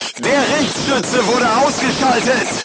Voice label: voice message gunlayer contused, crew tanks german